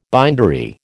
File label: "bindery", American